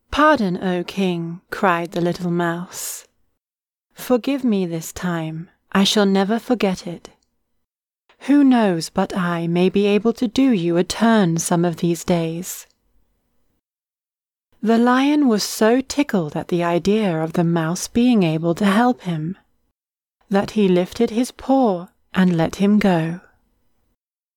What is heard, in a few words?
British, narrators voice sample